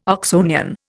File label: "Oxonian", Indian